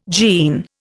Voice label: "gene", Indian